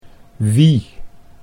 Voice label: "wie", Dutch

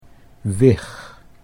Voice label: "wig", Dutch

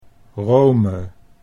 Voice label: "Rome", Dutch

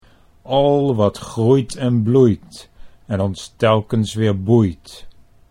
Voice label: al wat groeit en bloeit en, Dutch

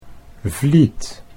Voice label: "vliet", Dutch

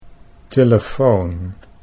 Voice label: "telefoon", Dutch

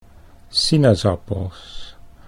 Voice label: "sinaasappels", Dutch

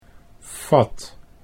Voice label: "fat", Dutch

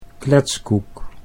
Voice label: "kletskoek", Dutch

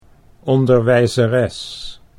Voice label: "onderwijzeres", Dutch

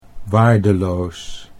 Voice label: "waardeloos", Dutch

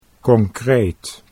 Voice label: "concreet", Dutch

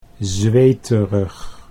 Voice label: "zweterig", Dutch, SLOW

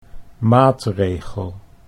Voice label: "maatregel", Dutch

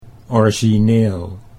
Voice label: "origineel", Dutch

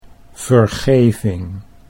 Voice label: "vergeving", Dutch

